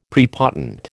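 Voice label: "prepotent", American English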